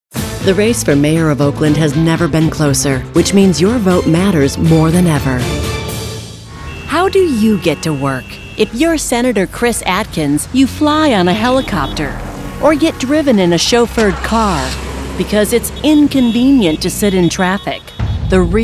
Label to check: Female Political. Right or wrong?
Right